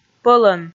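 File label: "пӧлӧн", Komi, female; kpv audio dict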